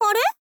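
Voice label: "are", girl, Japanese; voice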